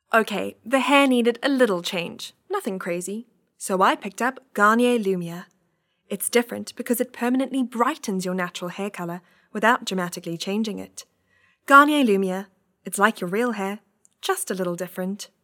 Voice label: English Conversational